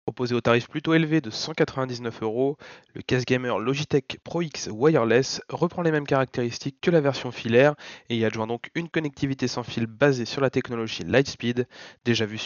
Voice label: logitech pro x wireless soundtest base

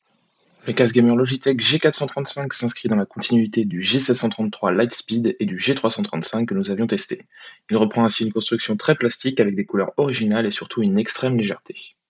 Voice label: soundtest g335 normal

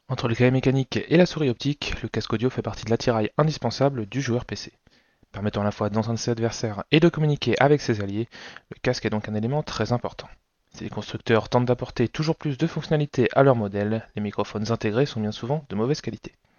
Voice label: soundtest logitech g pro x 2 lightspeed

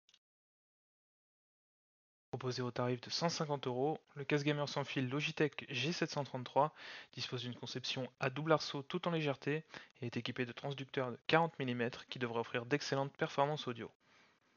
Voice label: audio microphone base

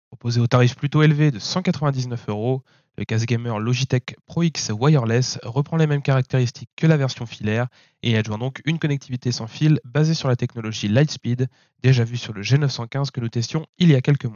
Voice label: logitech pro x wireless soundtest broadcaster

